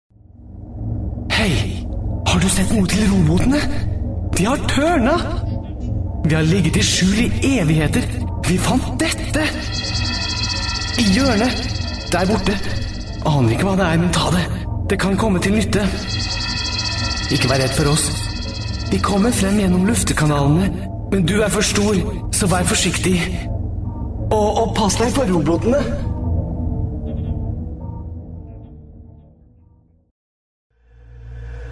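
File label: Norwegian, Video Game; GoLocalise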